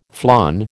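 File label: "flan", American English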